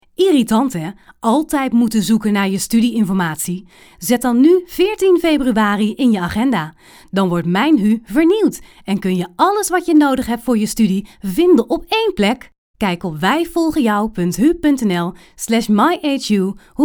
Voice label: voice over teaser